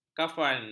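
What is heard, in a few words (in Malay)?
"kafan", Malay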